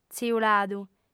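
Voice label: "tziuladu", Sardinian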